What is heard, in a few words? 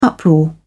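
"uproar", British English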